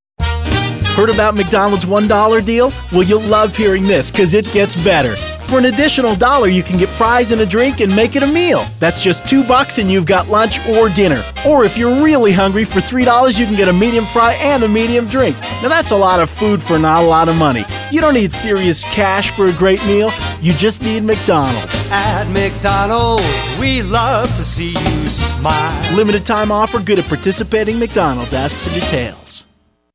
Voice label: voice-over; McDonalds